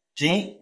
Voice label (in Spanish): Voice Acting es M